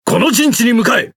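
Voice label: Speech Quick Commands Enemy Base, Japanese; World Of Warships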